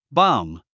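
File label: "bomb", English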